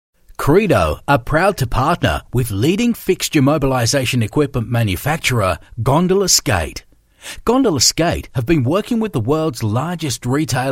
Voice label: english australian tv shows